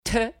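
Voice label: "te", French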